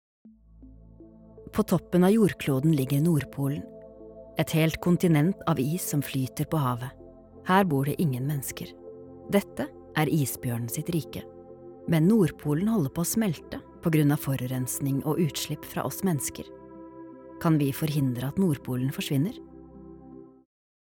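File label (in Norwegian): no dokumentar, Norwegian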